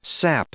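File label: "sap", English; noun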